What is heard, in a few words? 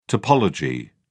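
"topology", British English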